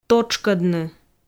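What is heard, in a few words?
"тотшкӧдны", Komi, female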